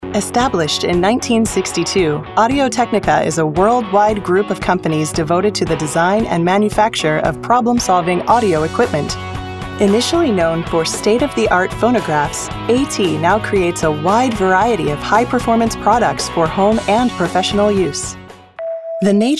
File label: narration